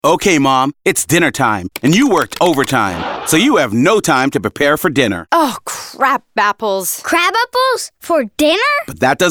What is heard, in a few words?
Kids Meal Radio EN; Wendys; Full Mix